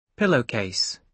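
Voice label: "pillowcase", British English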